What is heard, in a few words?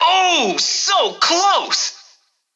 VO Classic Positive